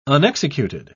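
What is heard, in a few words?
"unexecuted", British English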